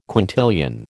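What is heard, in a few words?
"quintillion", American English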